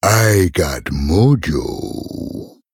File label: Male Troll Play